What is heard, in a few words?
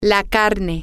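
the meat, spanish, female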